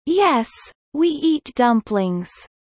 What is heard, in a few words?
Kelly low